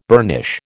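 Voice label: "burnish", American English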